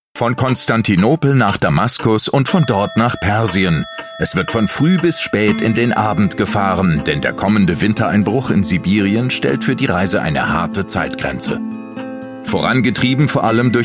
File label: vo weltumrundung; claerenore stinnes; LOFT VOICES